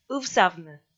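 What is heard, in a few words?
"ывсавны", Komi, female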